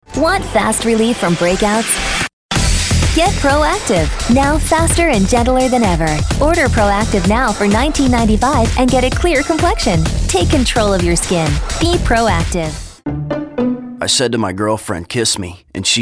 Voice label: commercial